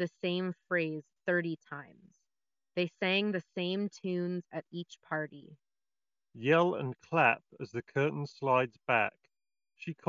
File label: Skullcandy Hesh ANC Ideal microphone sample